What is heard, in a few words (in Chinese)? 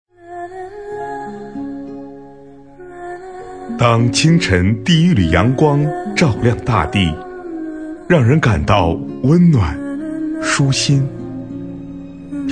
男 抒情